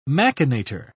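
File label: "machinator", British English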